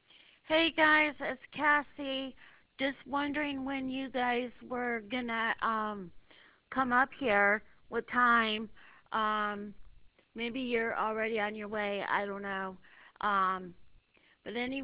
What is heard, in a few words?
voice mail